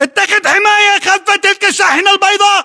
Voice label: order cover van white, battle chatter, english